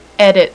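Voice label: "edit", female; voice; Web Clip Empire 150,000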